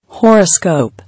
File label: "Horoscope", English